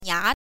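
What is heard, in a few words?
"nia", pinyin, second tone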